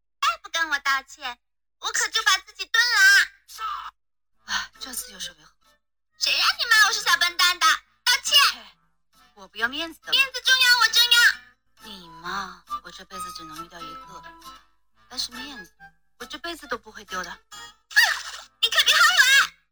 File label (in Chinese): 活泼